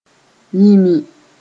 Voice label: "yĩmi", Mooré

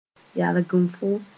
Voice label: "yalgenfo", Moore

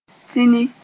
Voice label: "tĩni", Moore; take the edge off

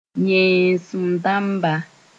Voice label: "yẽesem-dãmba", Mooré

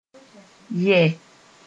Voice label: "yɛ", moore

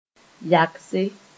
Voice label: "yagse", Moore

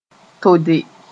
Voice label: "tode", moore

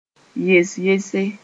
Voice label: "yes-yese", Mooré; speaking no matter how

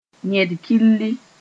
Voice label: "yẽd-killi", Mooré; buttock

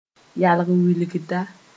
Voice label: "yalg wilgda", Moore

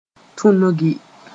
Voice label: "tũnugi", moore

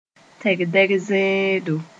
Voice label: "tɛgdɛg zẽedo", Moore

